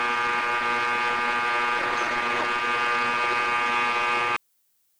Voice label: Male Voice